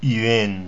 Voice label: "yuan", pinyin sounds; Mandarin Chinese Pronunciation